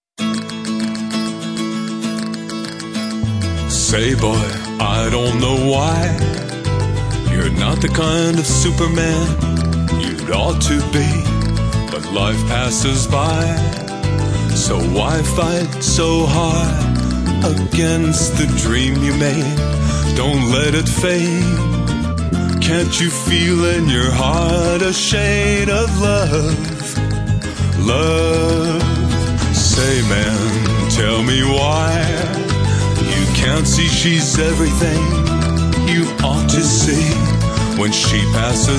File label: Voice Over; Gauloises